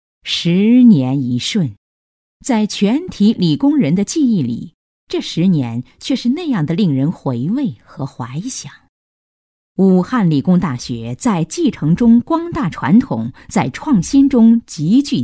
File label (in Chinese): 女 专题配音, 沉稳大气; 武汉理工学校